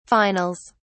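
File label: "finals", British English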